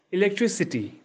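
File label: "electricity", English; sources of heat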